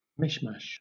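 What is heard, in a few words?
"mishmash", British English; pronunciations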